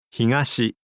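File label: "higashi", Japanese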